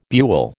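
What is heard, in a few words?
"buhl", American English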